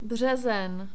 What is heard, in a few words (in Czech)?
"brezen", Czech